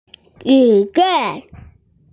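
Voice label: "zi3dan4", Mandarin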